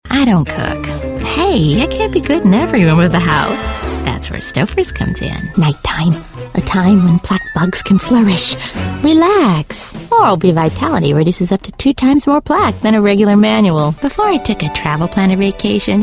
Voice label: warm, female voices for radio commercials